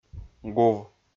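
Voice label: "гув", Komi-Zyrian, male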